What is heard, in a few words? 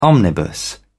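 "omnibus", English, British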